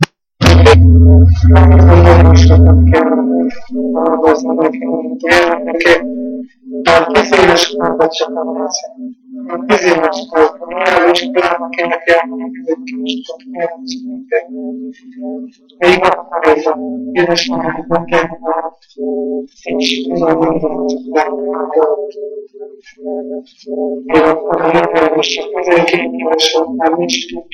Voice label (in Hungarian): nyelvjaras gyujtesek